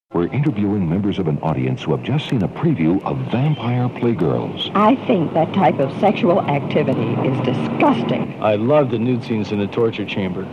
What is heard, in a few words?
movie trailer; Ghouls With Attitude; vampire playgirls